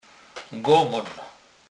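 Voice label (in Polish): "gomon", Kurpie dialect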